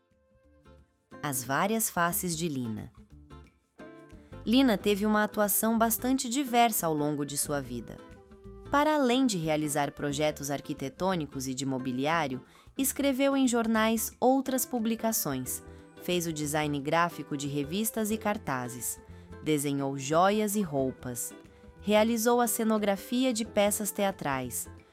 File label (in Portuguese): narracao; as varias faces de lina luz